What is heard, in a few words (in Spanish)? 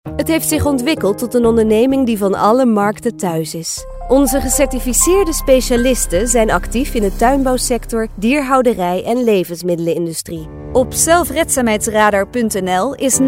Neerlandes Paises Bajos Femenina Adulta